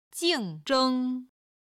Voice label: "jing4zheng1", chinese